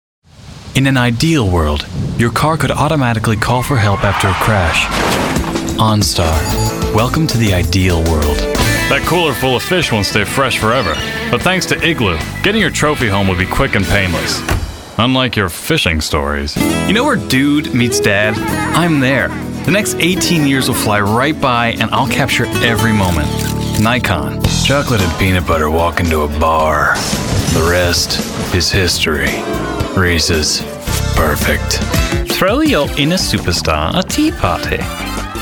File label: Men Commercial